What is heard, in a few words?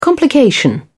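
"complication", British English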